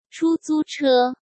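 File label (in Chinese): "出租车", Mandarin Chinese; chinese-flashcards; hsk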